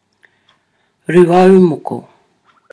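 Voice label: "ruaumoko", Māori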